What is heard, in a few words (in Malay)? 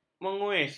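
"menguis", Malay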